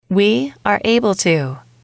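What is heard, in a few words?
we are able to can, English, female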